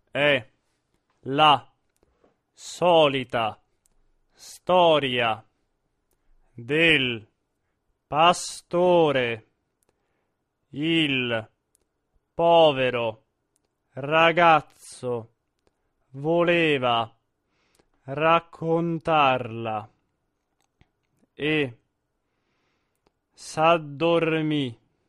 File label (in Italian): monotono; Cilea; L arlesiana